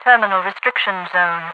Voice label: terminal restriction zone, overwatch radiovoice; hl2mp